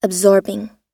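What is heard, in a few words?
"absorbing", US English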